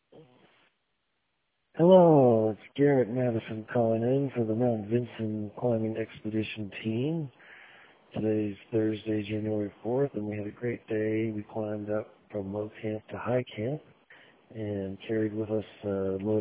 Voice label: voicemail